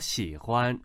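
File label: "xi3huan1", Chinese, male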